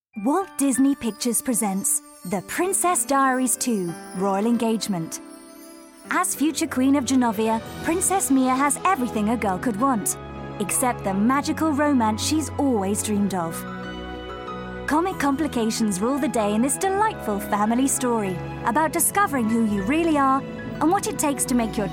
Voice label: promo; Disneys Princess Diaries

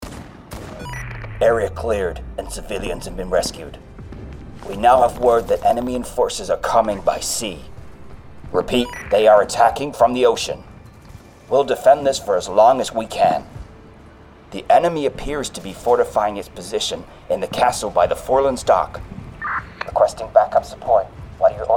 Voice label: US Soldier

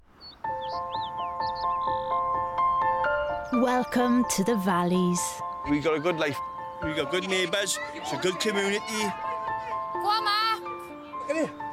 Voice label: Welsh Lottery Doc; The Welsh Valley that Won the Lottery

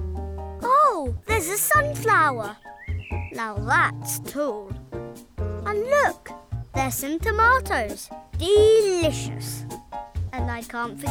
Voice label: Innocent

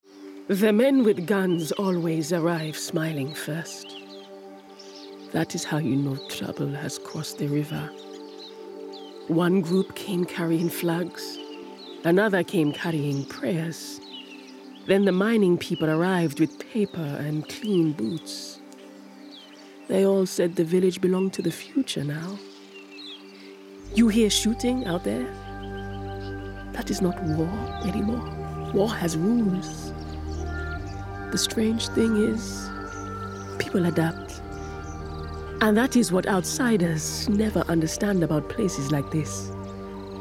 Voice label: Video Game African Villager

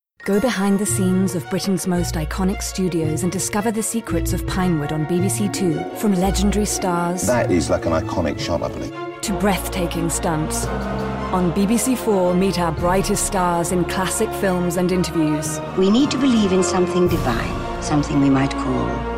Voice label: British Cinema Promo

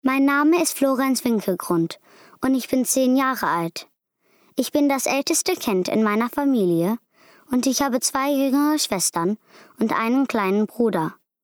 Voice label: German Intro

